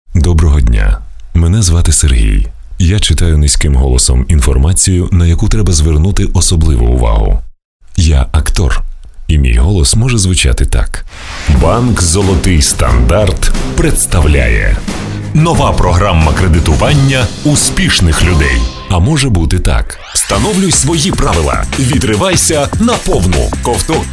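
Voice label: Ukrainian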